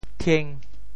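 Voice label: "thieng5", Teochew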